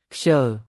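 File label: "ខ្ជិល", Khmer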